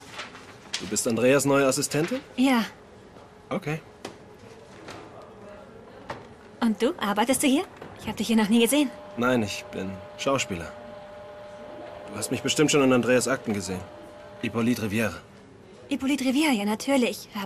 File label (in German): Synchron